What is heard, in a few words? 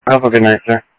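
Sheriff East County, scanner audio trunk; ThompsonPeakScot; MCSO - EAST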